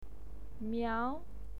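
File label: "miao" second tone, pinyin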